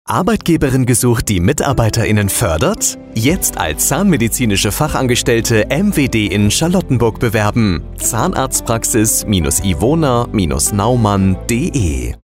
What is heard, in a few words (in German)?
Funkspot; Zahnarztpraxis Ivona Naumann